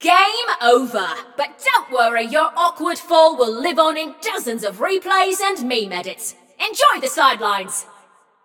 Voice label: Scrap Run Inform Runner Eliminated, Announcer; Flux